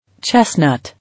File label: "Chestnut", English